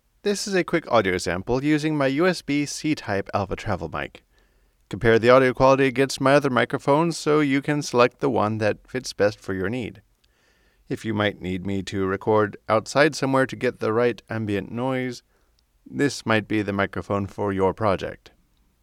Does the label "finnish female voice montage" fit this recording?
No